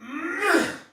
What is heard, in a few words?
Attack, Soldier Male; Sinecure